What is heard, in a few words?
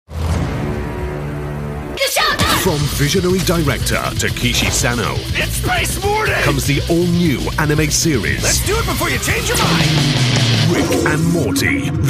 continuity radio